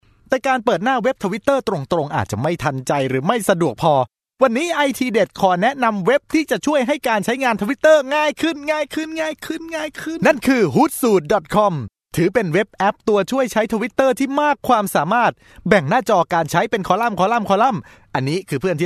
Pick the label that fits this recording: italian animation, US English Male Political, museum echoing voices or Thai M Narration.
Thai M Narration